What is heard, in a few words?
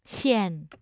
xian4, Mandarin tone